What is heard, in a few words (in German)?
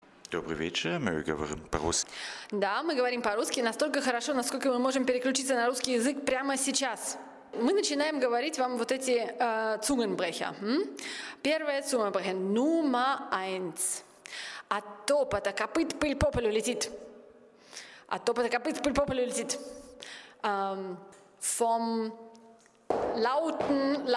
russisch; Zungenbrecher